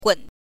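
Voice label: "gun", Mandarin pinyin, neutral tone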